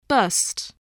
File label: "bust", spelling